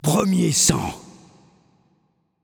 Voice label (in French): Announcer French Spree First Blood